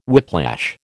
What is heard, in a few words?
"whiplash", American